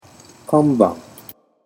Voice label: "kanban", Japanese